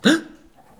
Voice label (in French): "hein" surprise